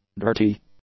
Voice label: "dirty", english; adjectives